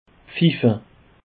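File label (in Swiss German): "pfiffe", Bas Rhin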